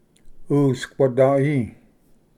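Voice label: "usquadai", Cherokee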